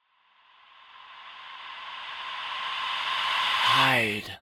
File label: Male Ghostly Voice, Hide, Creepy, Air, Spirit, Ethereal, Wet; 344 Audio; Supernatural Ghosts